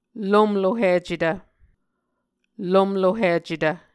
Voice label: "lomlohicetv", Muscogee